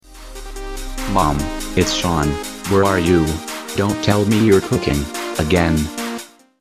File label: Is Calling Rap